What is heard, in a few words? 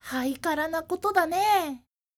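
female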